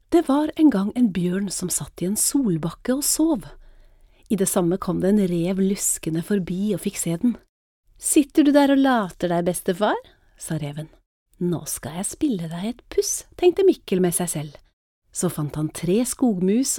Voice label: NOR Audiobook F

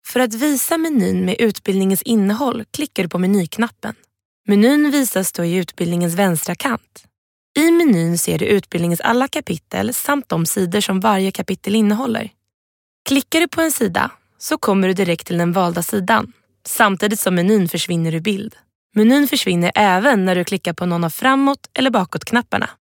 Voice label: informative

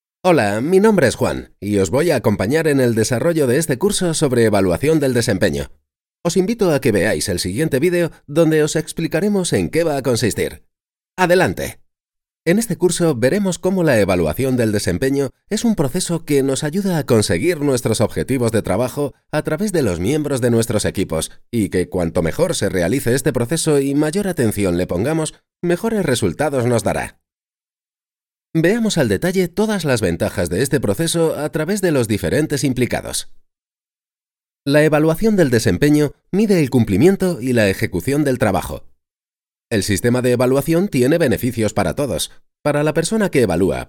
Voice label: es-eu m informative

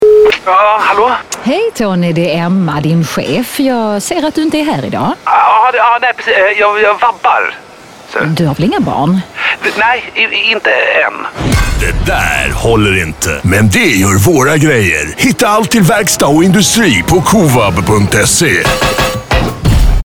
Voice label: Svenska

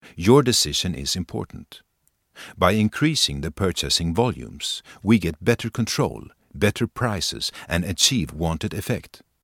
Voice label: se m eng

